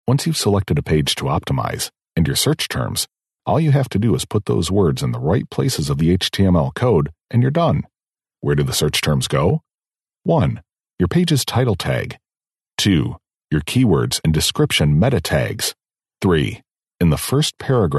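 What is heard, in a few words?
English US male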